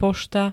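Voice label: "pošta", Slovak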